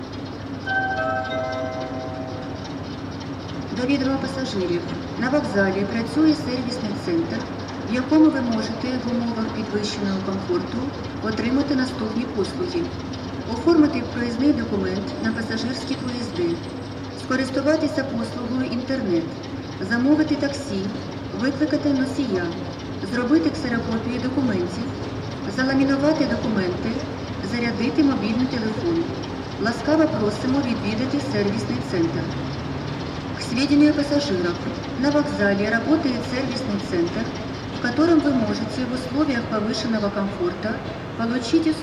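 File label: railway station Odessa announcement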